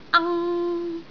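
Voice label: "ang1", Cantonese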